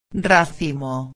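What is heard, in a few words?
"racimo", Spanish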